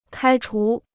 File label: "开除", Chinese